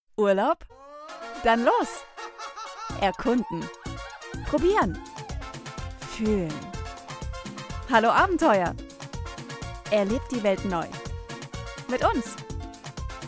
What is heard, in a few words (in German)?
Reisewerbung